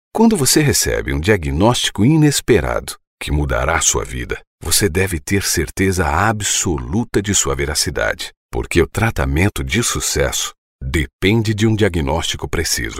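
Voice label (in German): Portuguese Neutral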